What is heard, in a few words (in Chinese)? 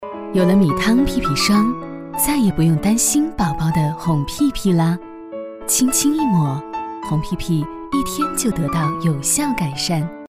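广告母婴好可爱产品米汤屁屁霜; 好可爱明星产品米汤屁屁霜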